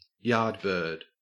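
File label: "yardbird", Australian English; pronunciations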